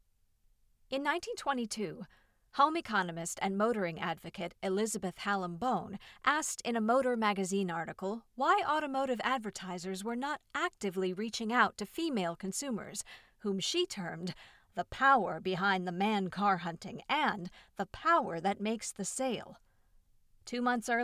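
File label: Nonfiction sample, narration samples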